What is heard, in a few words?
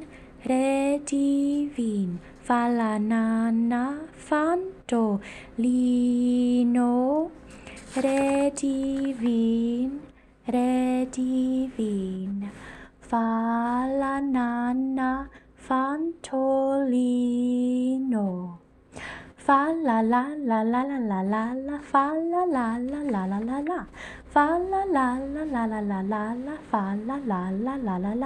dormi dormi pronounciation guide